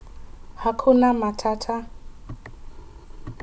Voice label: Swahili No problem Hakuna Matata

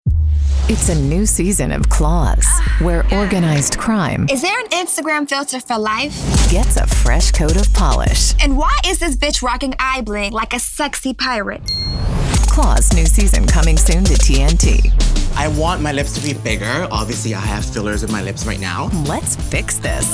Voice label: PromoDemo

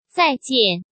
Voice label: China Goodbye

